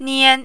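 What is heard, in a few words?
"nian", Mandarin, first tone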